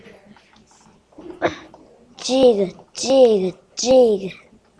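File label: "jig", spoken word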